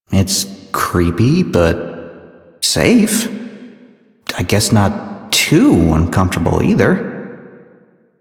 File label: Citizen Male, en, Mall; Warframe; Citizens